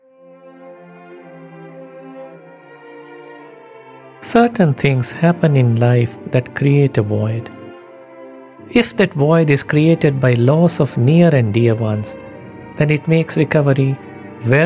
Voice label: narration english

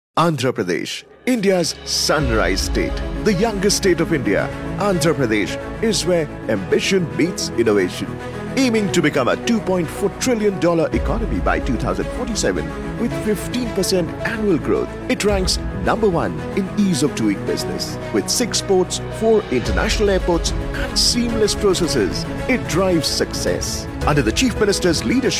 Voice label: Corporate english, male

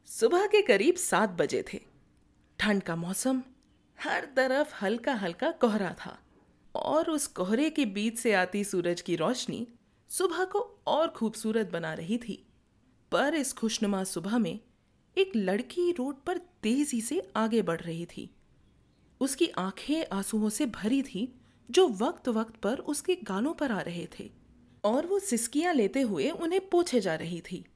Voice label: Hindi Fiction Narration

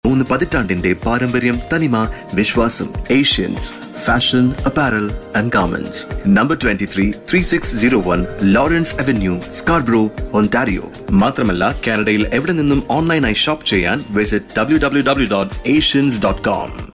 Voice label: radio Ad

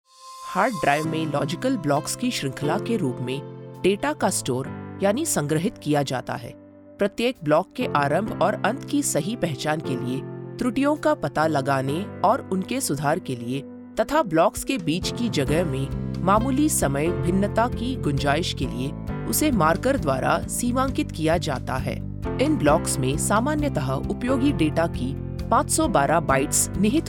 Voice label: elearning hindi

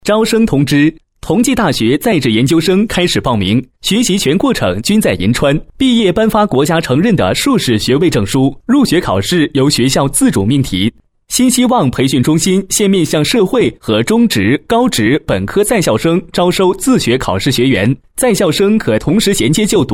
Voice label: male Narration

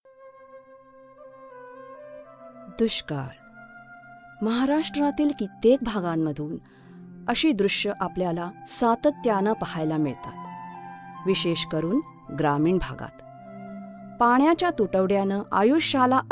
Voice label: docu marathi

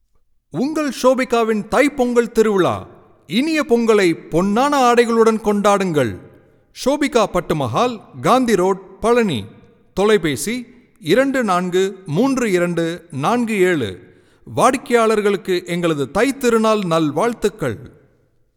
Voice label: tamil

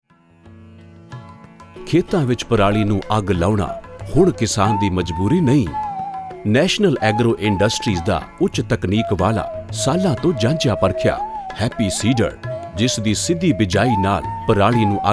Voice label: explainer punjabi

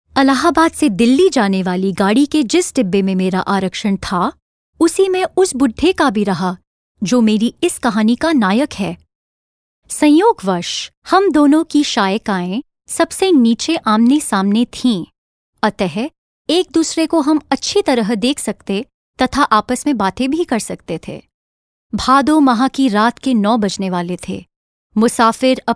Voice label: Hindi VO

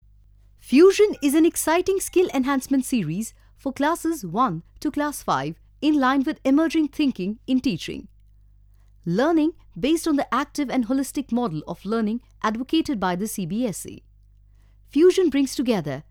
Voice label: English, audio book

